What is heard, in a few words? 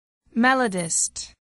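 "melodist", English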